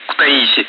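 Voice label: Speech, Russian, air traffic control; Callsign; Kutaisi; DCS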